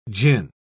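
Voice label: "jin", British English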